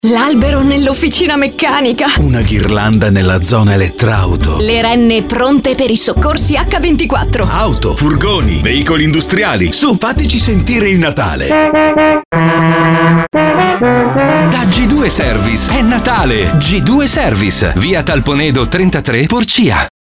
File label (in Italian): spot; G2-service